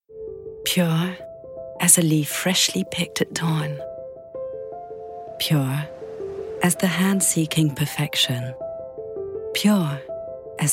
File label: UK; Pure Leaf